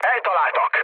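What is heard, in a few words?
Hungarian aircraft destroyed mood low, speech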